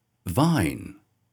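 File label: "vine", English pronunciation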